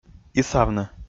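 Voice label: "исавны", Komi, male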